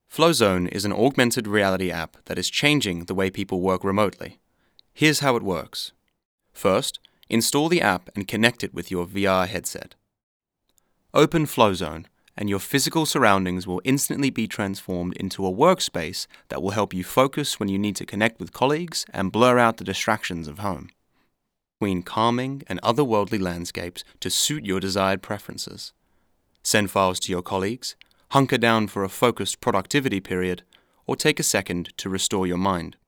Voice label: male english australian app corporate explainer; flowzone